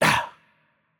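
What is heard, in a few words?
French hurt light voice; bloom minigame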